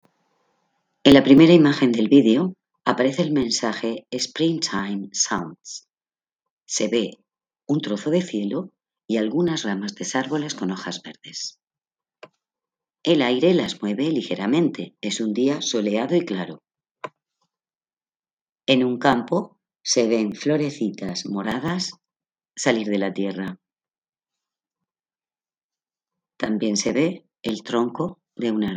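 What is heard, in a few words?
count to five in french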